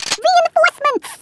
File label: "collect", Brooklyn; Worms Armageddon